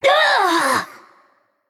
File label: Female Naga Death